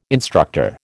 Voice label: "instructor", American

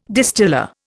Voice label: "distiller", Indian

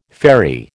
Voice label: "faerie", American

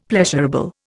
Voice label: "pleasurable", Indian; Dictionary

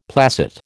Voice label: "placet", American

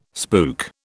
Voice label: "spook", British

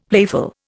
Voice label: "playful", Indian; Dictionary

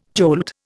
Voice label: "jolt", Indian

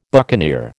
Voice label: "buccaneer", American

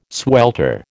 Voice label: "swelter", American

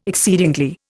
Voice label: "exceedingly", Indian

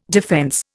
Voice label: "defence", Indian

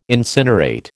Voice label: "incinerate", American

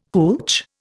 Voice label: "hootch", Indian

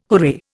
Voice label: "hooray", Indian

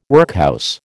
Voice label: "workhouse", American

